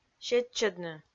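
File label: "сетчӧдны", Komi, female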